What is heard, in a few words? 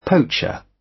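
"poacher", British; Flora and fauna, agriculture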